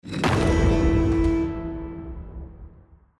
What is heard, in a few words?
free Games announcement; Champions of Valhalla